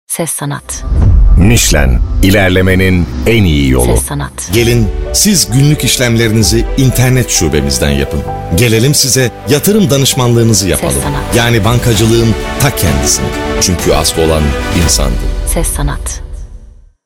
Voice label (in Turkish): TR, Seslendirme